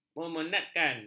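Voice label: "memenatkan", Malay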